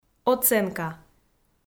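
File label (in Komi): "оценка", Komi, female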